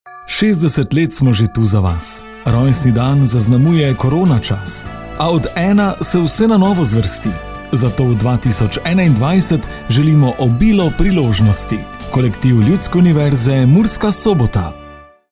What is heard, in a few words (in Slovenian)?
Radio voščilo; Maxi LUMS 2020